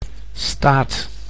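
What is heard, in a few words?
"staat", Dutch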